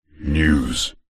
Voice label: radio prod male voice says news processed